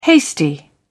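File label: "hasty", British English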